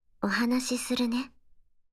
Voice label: title call